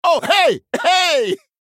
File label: male troll mirror greetings